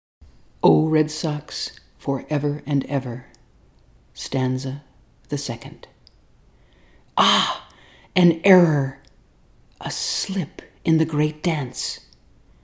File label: Narration